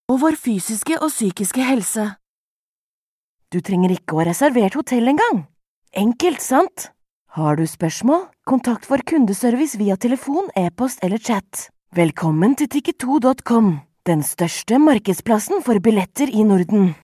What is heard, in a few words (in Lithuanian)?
(MOT) Norvegu balsas; moteris